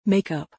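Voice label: "makeup", English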